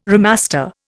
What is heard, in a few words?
"remaster", Indian